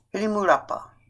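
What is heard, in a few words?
"rimurapa", Maori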